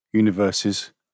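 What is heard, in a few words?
"universes", British English; pronunciations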